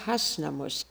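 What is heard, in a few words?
"Hasnamuss", pronunciations; Fourth Way; Beelzebub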